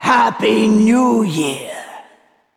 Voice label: Female Val'kyr HAPPY NEW YEAR LUNAR